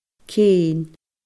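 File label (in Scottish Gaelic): "céin", Scottish Gaelic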